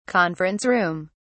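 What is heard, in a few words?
"conference room", British English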